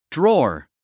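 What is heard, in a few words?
"drawer", English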